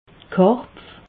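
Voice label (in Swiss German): "karpfe", Alsatian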